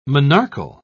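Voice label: "monarchal", British English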